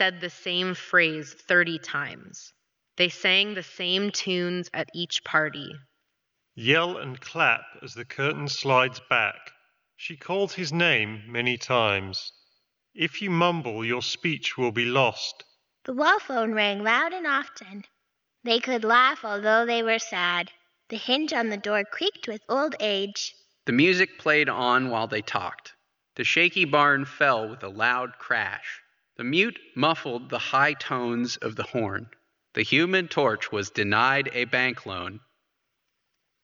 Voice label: Astro A30 Reverb microphone sample